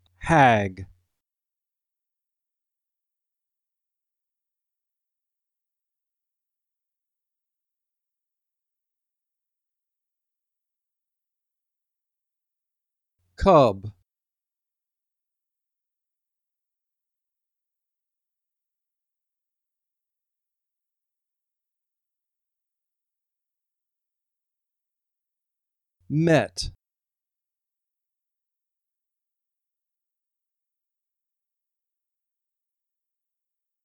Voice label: Short Vowel; All We Can Read